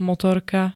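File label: "motorka", Slovak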